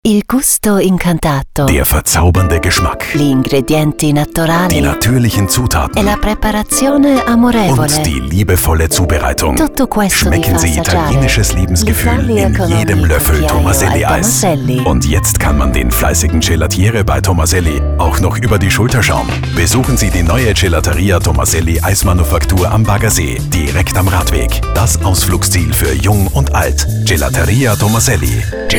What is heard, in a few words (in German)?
Radiospot; TOMASELLI; Eröffnung Baggersee